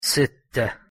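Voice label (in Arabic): "ستة", Arabic pronunciation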